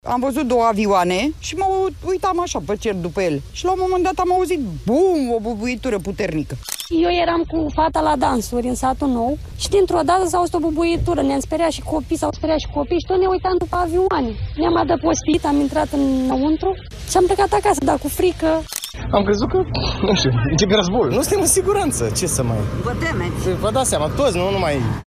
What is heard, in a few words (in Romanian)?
VOX localnici din Buzau; drona; padina